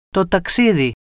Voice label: "reis", gr

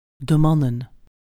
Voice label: "man", nl

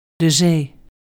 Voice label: "zee", nl

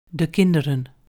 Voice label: "kind", nl

